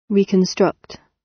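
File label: "reconstruct", British; Design and innovation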